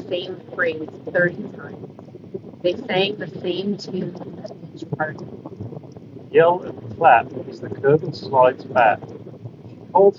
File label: Wind microphone sample; Skullcandy Rail ANC